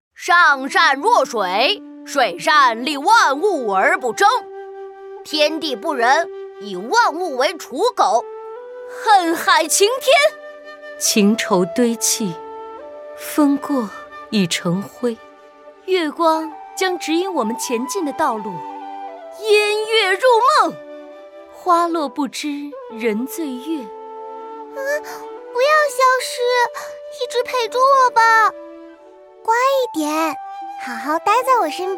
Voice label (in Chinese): 女